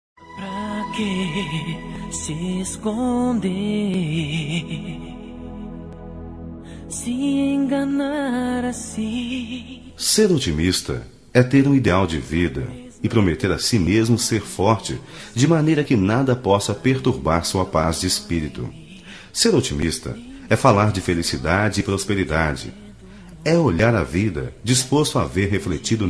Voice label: Romântica Com Otimismo

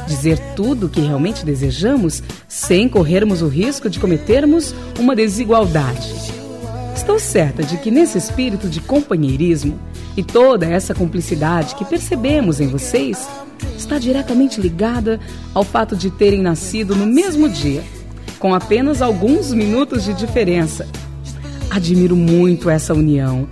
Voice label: Aniv. de Gêmeos F

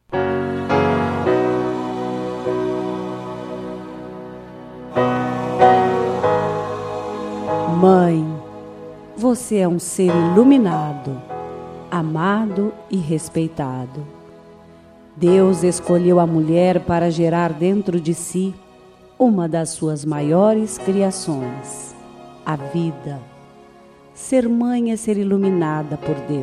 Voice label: Dia das Maes, F

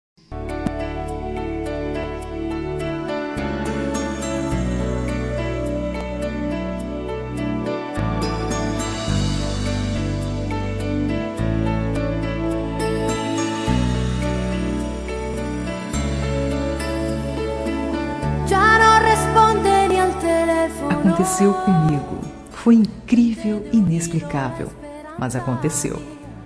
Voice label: Romântica Amor a Primeira Vista

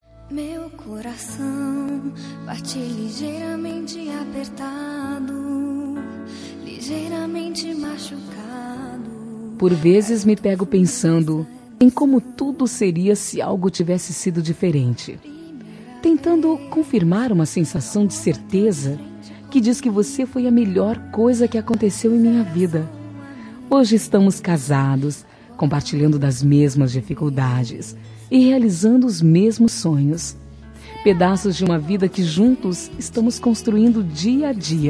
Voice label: Romântica pra Esposo, F

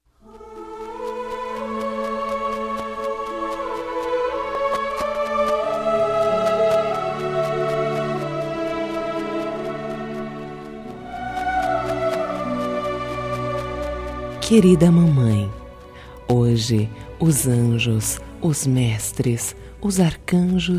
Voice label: Dia das Maes, F

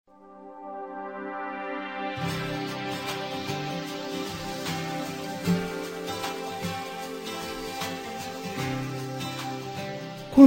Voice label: Amizade Com Otimismo, M

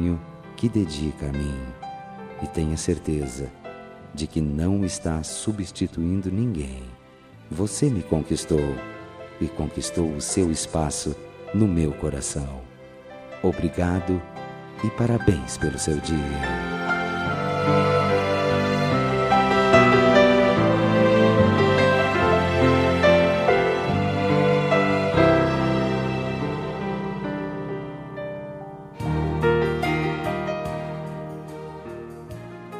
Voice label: Dia das Maes pra Madrasta, M

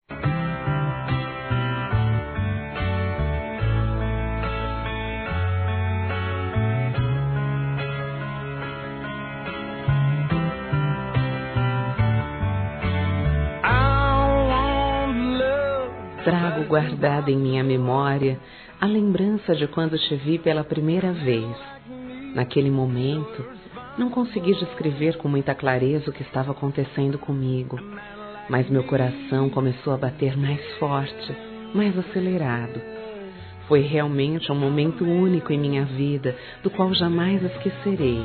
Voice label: Namorados Com 1 Ano de Namoro F

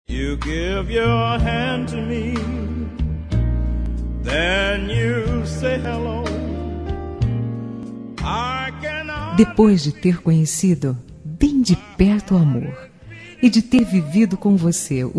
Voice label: Romântica Com Pedido de Casamento

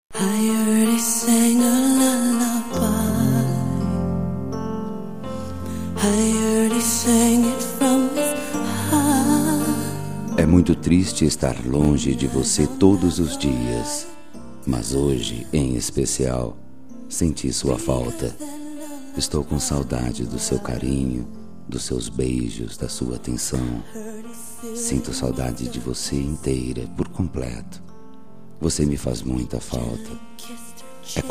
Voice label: Namorados pra Namorada Que Ta Distante, M

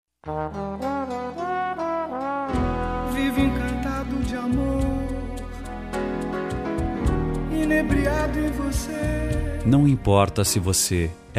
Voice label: Dia Internacional da Mulher M

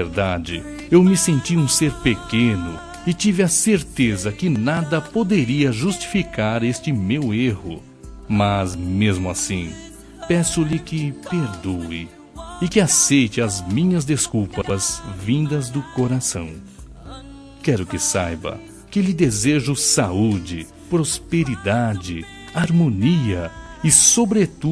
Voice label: Romântica Esqueci da Data Importante

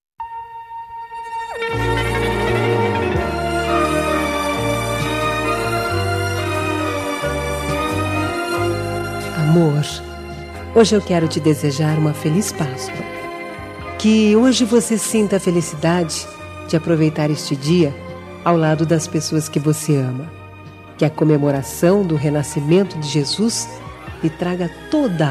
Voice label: Pascoa Romantica

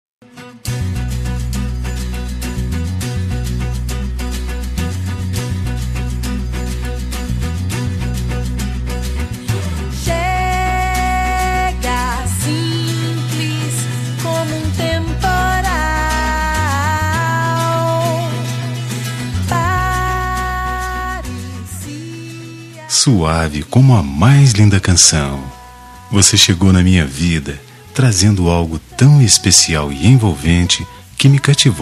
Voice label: Namorados pra Namoro Recente M

